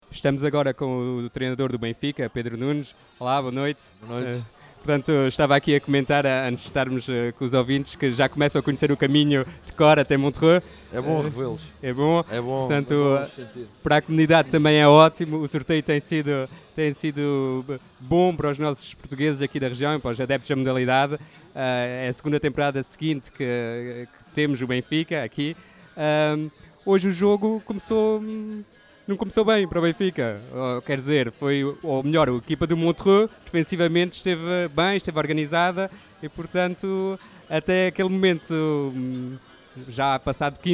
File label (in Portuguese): Entrevista Trainador; Benfica